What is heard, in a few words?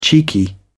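"cheeky", British English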